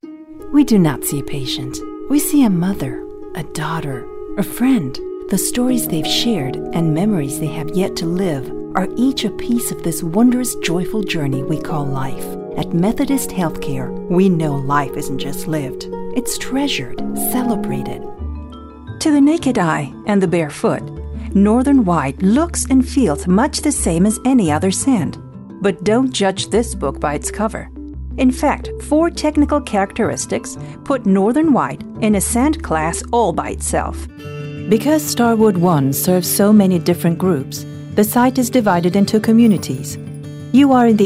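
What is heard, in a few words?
female, accented English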